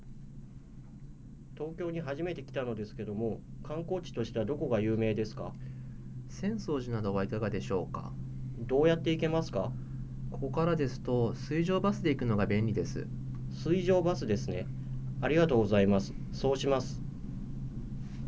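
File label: ja-JP Broadband sample, Japanese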